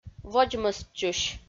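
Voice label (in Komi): "водзмӧстчысь", Komi, female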